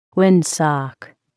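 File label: "windsock", English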